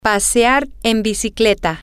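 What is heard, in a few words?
to go bike riding, spanish, female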